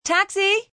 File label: Taxi F, Taxi, female; Talking